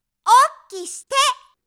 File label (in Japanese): おっきして！, 妹系／ぶりっ子／元気な子